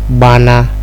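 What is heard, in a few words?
"gbana" Nigerian Pidgin, male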